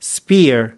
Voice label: "spear", us

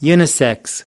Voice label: "unisex", us

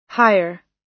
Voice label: "hire", us

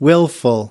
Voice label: "willful", us

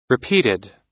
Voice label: "repeated", us

